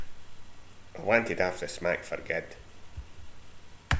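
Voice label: "smack", slang; Ah huvnae seen ye aboot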